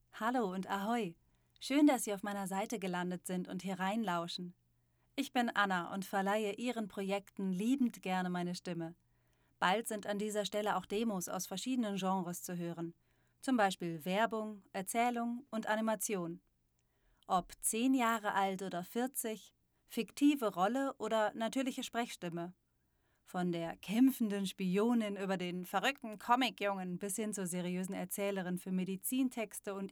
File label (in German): Website Deutsch